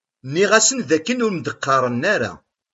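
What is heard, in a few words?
"Nniɣ-asen d akken ur k-d-qqaṛen ara.", Kabyle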